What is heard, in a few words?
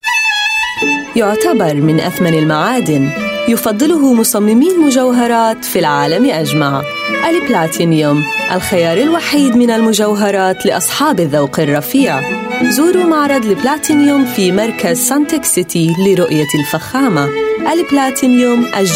Arabic, Arabic Female